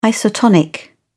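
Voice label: "isotonic", British English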